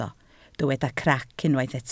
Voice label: "crac", Welsh